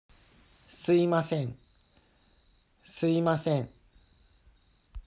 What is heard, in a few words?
Suimasen Pronunciation, Japanese